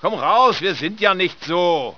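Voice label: german speech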